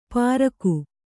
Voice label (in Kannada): "ಪ್ರಾಕು", Kannada